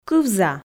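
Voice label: "кывза", Komi, female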